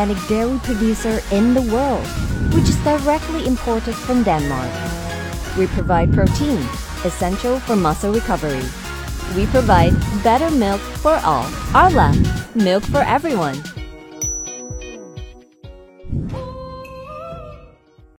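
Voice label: ENGLISH - COMMERCIAL Cheerful, Positive, Happy, Informative, Professional; ENGLISH - COMMERCIAL (Arla) Cheerful, Positive, Happy, Informative, Professional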